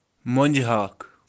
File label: "Monji haakh", Kashmiri